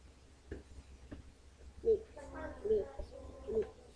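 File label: "lute", spoken word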